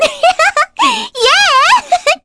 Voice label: vox get, kr; heroes; Cleo; kingsraid